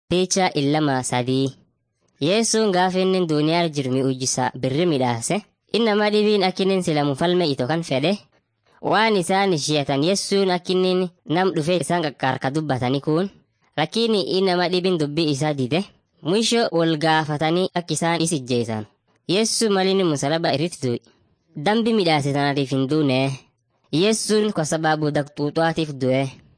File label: afan munyoyaya; mighty men of god